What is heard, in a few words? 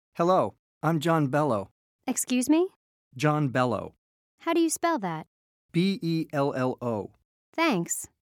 Conversation; StepUp